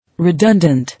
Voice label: "Redundant", English